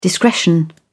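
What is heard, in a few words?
"discretion", British English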